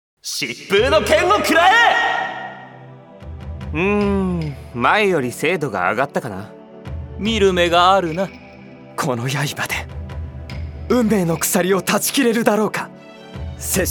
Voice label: 青年 日语 男

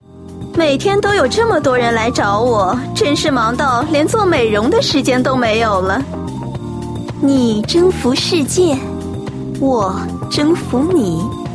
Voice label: yujie nvwang

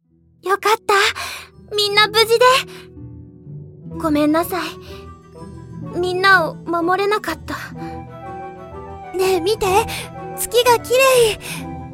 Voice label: 青年女

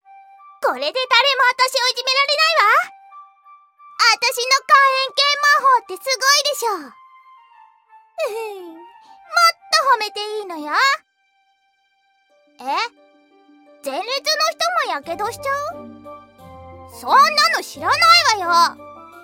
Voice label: 少女

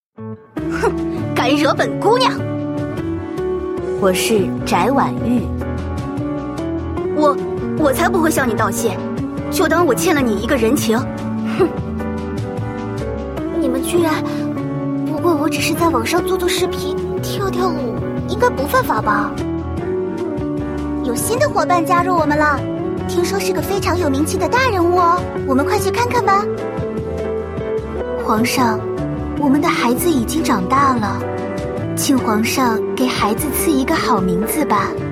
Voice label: qingnian nv

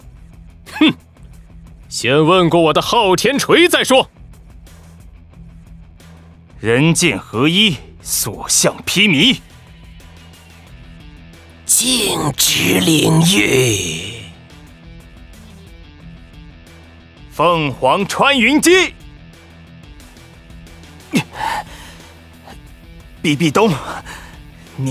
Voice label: 男

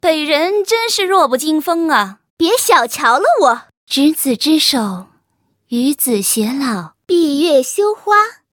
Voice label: 女

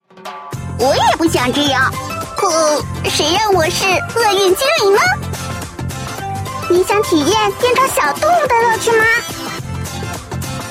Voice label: zhengtai luoli

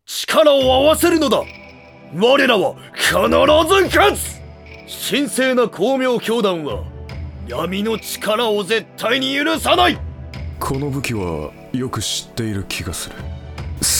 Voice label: 中老年 日语 男

